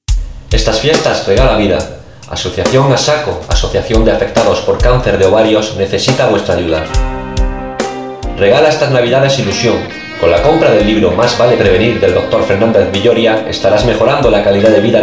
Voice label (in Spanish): Voz en Radio; ASACO; Libro Mas vale prevenir